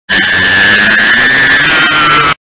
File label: hit me baby, scary sounds; scary sounds home